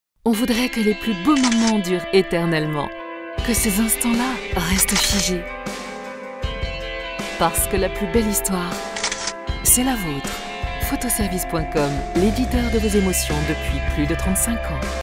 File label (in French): voix off feminine france; photoservices